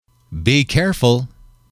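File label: "be careful", English, male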